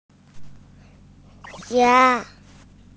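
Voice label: "jia1", Mandarin Chinese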